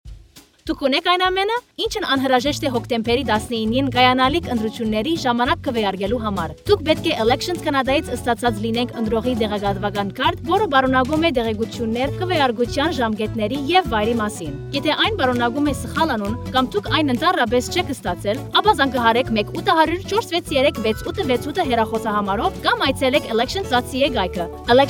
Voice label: Armenian